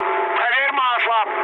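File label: Russian damaged oil mood med, speech; War Thunder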